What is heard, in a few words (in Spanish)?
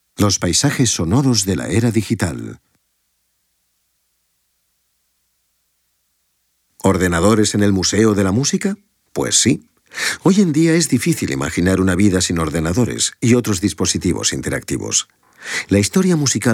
audioguia; delamusica espanyol